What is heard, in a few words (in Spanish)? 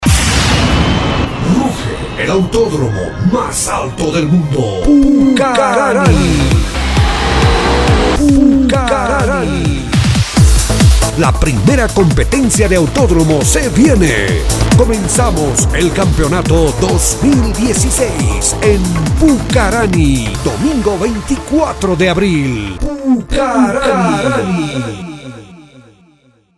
cuña principal; pucarani abril 2016